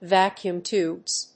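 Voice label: "vacuum tubes", English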